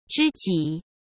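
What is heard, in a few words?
"知己", Mandarin Chinese; chinese flashcards; hsk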